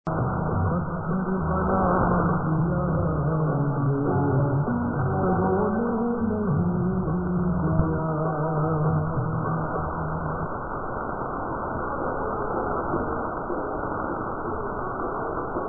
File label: 7200kHz Afganistan